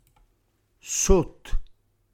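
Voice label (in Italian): "sót", Reggiano; asciutto; reggiane; dialetto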